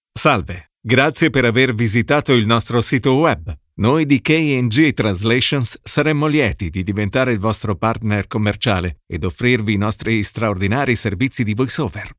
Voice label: italian male